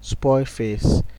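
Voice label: "spoil face", Nigerian Pidgin, male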